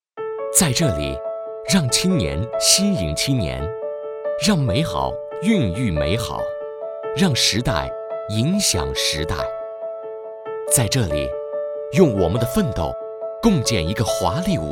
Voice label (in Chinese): 男, 阳光, 年轻, 朝气